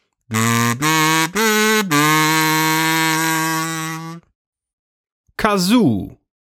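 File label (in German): "Kazoo", German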